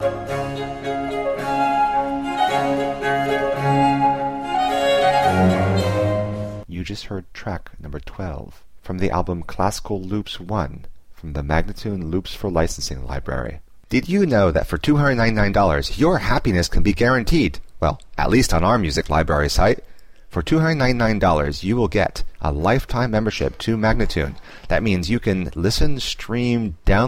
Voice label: american baroque spoken; Loops For Licensing; Classical Loops 1; MagnaLoops